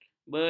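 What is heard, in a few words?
"benih", Malay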